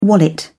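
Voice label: "wallet", British English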